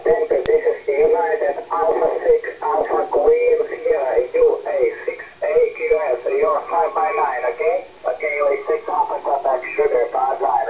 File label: SSB 20m